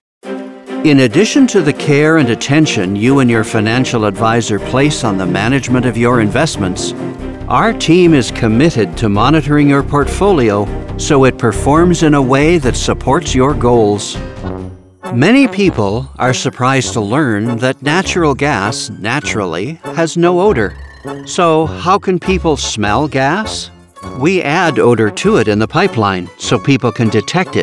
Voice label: e-Learning